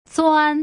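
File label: "zuan", Putonghua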